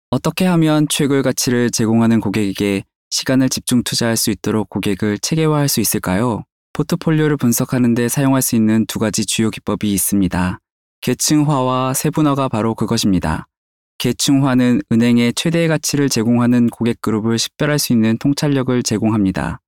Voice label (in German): Nachvertonung Film Sprecher Koreanisch